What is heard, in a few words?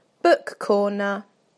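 "book corner", British English; PictureDictionary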